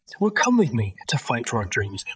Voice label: Voice Acting, es